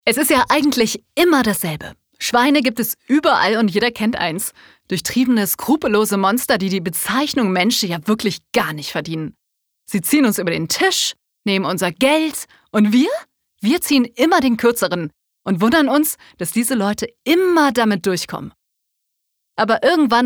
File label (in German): Schweine Dynamisch